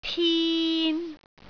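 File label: "tin3", Cantonese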